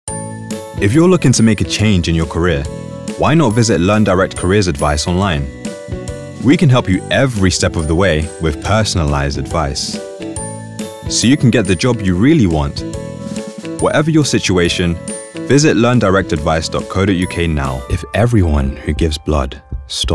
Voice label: Commercial, Warm, Calm, Friendly, Relatable

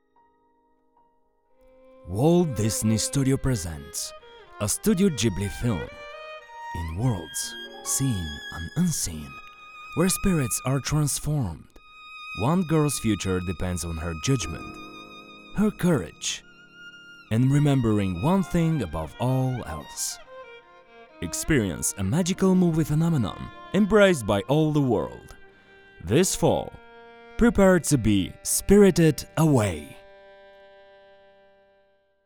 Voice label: Promo English

